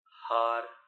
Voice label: "haar", Palula

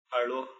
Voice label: "aRo", Palula

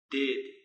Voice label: "deedi", Palula

